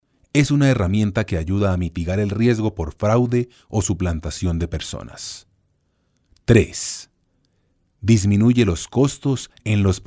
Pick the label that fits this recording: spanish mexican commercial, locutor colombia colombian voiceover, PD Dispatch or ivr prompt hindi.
locutor colombia colombian voiceover